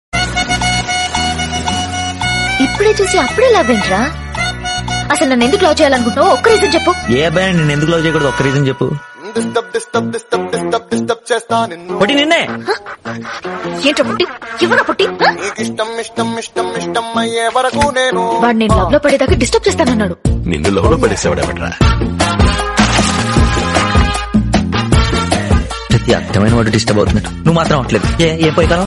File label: Dialogue, Telugu; First Sight; Nenu Local